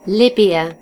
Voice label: "libya", en GB; gcompris